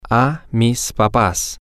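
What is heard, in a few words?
to my parents, Spanish, male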